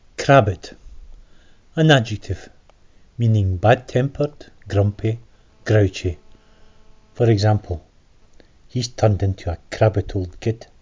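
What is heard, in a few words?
crabbit, schottisch; scotch-corner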